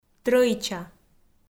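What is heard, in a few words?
"трӧича", Komi, female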